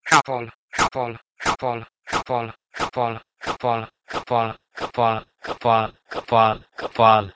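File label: Proto-Indo-European kh2pol to Nepali kapaal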